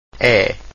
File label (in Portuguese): e open, Portuguese vowels; like ‘e’ in ‘net’